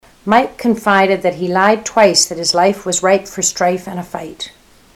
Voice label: mike lied twice, ontario